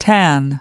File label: "tan", us